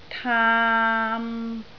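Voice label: "taam3", Cantonese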